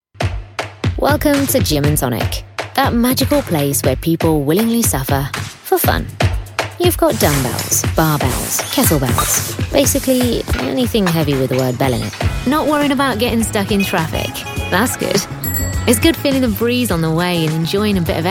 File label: commercial